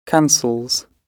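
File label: "cancels", British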